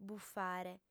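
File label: "bufare", female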